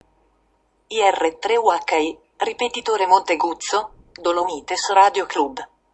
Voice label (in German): Kennung italienisch; Gitschberg